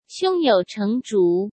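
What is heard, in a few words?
"胸有成竹", Mandarin Chinese; chinese-flashcards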